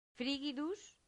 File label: "frigidus", latin; santillana